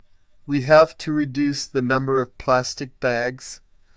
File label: amused; emov db